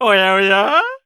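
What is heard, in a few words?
story, Japanese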